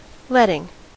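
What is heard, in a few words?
"letting", American English; pronunciations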